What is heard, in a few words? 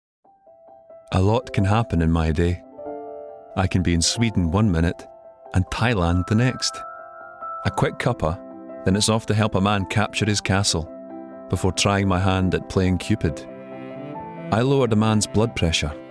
Commercial Post Office